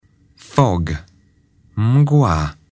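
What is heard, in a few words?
"fog", English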